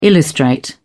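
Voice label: "illustrate", British English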